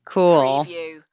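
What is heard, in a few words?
Woman Says Cool Bored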